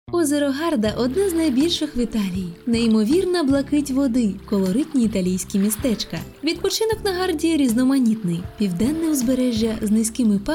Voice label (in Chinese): 乌克兰语女温柔深情广告